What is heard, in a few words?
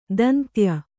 "ದಂತ್ಯ", kannada